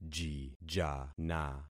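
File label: "jijana", concatenated syllables; Affixing experiment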